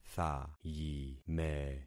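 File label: "thayime", concatenated syllables; Affixing experiment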